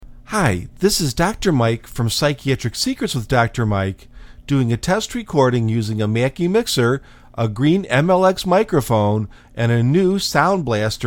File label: Mackie Mix Green Mic Xfi